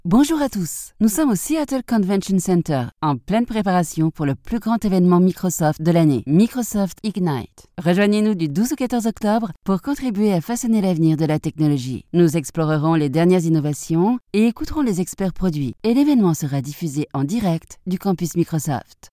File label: Corporate, voix off femme; Microsoft